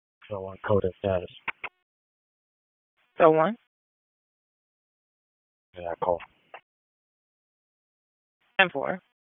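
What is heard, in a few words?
Raleigh Police Southwest District Dispatch; wake; p25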